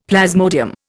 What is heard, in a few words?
"plasmodium", Indian; Dictionary